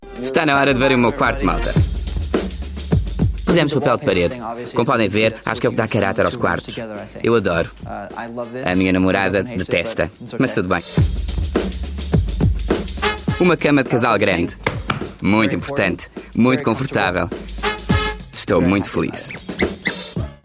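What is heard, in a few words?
Portuguese; Male, 20s-30s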